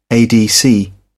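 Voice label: "adc", English, British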